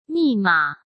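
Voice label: "密码", Mandarin Chinese; chinese-flashcards; hsk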